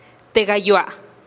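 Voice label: "te gayoa", cien, Teotitlán del Valle Zapotec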